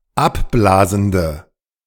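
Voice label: "abblasende", German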